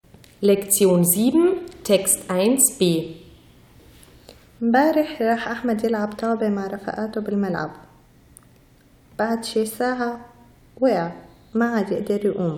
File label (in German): beim Arzt, syrisch-arabischer Dialekt; ʕand әd-dәktōr